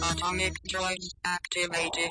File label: atomic drugs activated, Robotic or Stuttery or Spooky